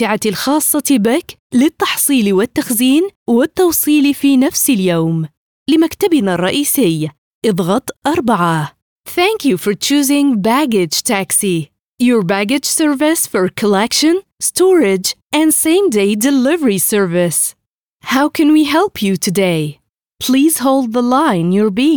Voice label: IVR English arabic

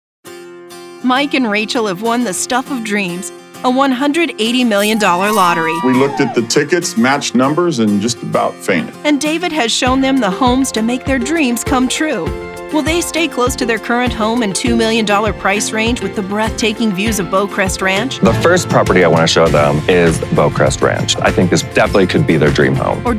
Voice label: TV NARRATION

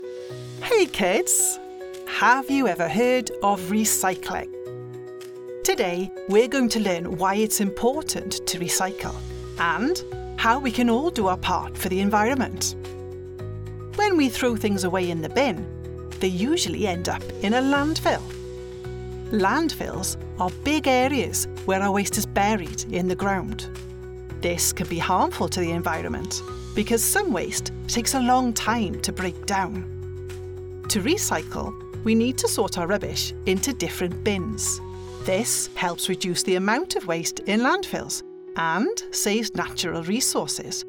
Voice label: E-Learning Explainer Voice reel Welsh Accent

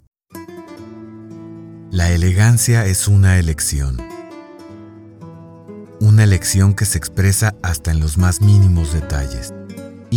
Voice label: Seductive Commercial

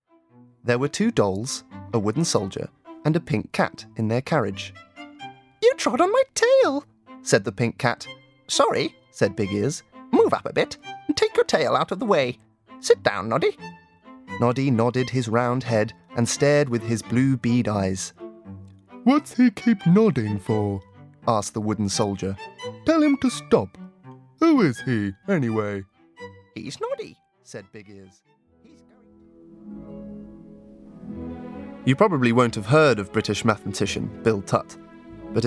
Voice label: Stories Documentary

